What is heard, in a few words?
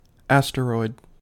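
"asteroid" English, US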